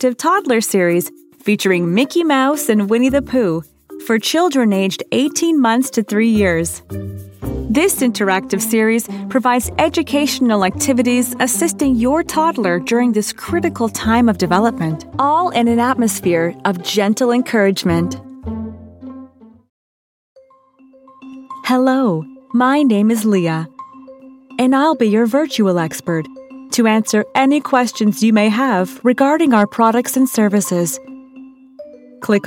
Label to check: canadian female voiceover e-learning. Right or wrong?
Right